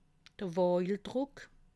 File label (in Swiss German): "wiejdruck", alsace